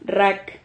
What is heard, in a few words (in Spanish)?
"rrac", silabas